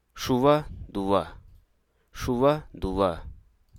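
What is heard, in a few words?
Hruso Aka; constant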